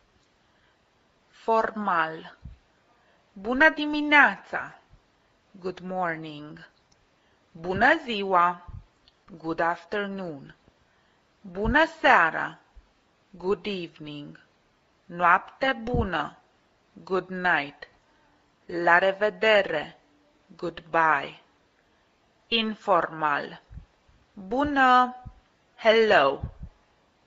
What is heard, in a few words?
Romanian Lesson; PeaceCorps